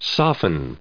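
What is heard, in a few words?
"soften", US English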